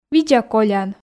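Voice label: "видза колян", Komi, female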